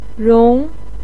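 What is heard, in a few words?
"rong", Mandarin pinyin, tone 2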